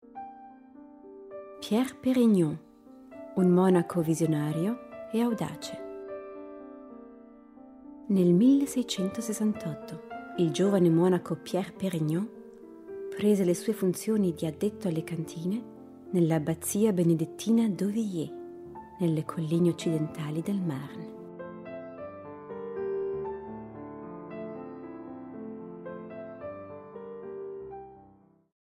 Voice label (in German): Brand Story Anfang italienisch